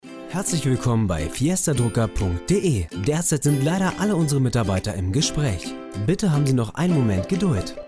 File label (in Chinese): 德语男 宣传片